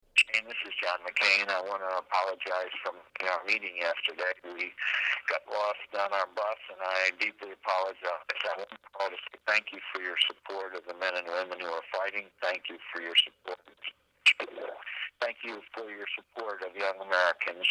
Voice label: voicemail message; McCain